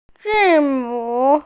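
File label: "zi4mu3", Chinese, F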